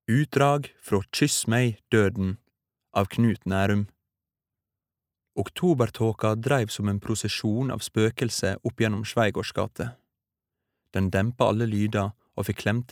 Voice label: no lydbok, norwegian, male